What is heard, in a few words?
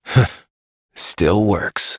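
Voice, English; New War; Operator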